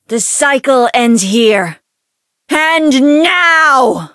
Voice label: Voice variable chant; tsukiha